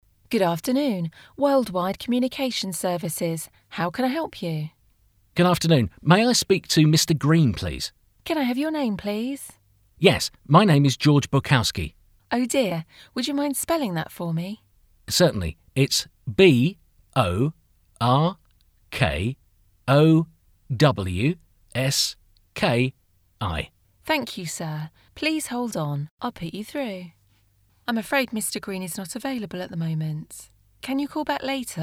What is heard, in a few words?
telephone